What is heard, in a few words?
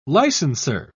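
"licenser", British English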